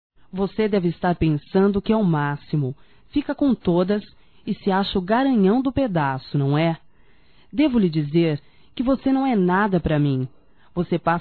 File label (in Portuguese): Xingando Ex-Namorado Feminino; Telemensagens 2017